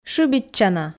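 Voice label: "шыбитчана", Komi, female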